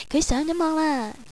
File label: Online, Cantonese girl; ICQ